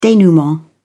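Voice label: "denouement", British English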